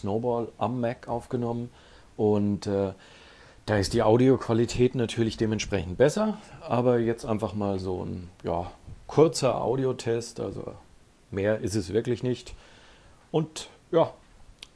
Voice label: iphone headset mikro test